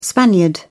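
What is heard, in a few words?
"spaniard", English, British English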